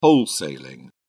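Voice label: "wholesaling", British English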